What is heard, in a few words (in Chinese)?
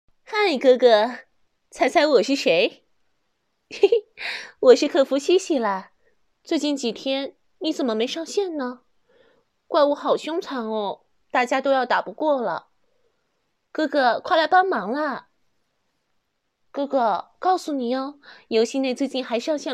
游戏 女音 试音